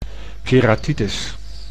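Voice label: "keratitis", Dutch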